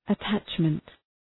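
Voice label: "attachment", English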